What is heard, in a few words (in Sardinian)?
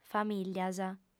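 "famìllias", female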